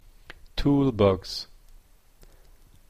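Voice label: "toolbox", Canadian English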